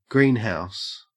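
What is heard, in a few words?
"greenhouse", Australian English; pronunciations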